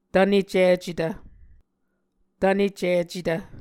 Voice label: "tvnecicetv", Muscogee

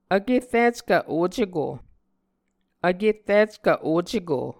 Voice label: "vkerrickv oceko", Muscogee; Mvskoke Words with Voicing Consonants; Martin, Jack B., and Margaret McKane Mauldin. 2023. A Dictionary of Creek/Muskogee

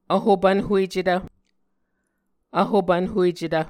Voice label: "vhopvnhuecetv", Muscogee

